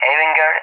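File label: "Avenger", English, SPAIN; AirDefence; DCS; Allied Flight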